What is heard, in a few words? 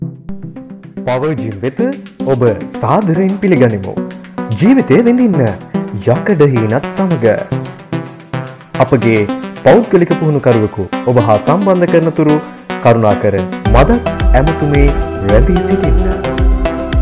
power gym male